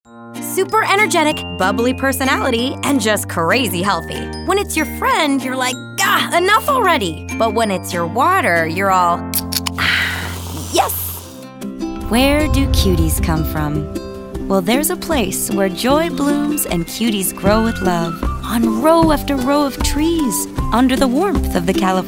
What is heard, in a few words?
Commercial